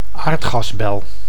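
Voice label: "aardgasbel", Dutch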